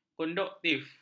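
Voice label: "konduktif", Malay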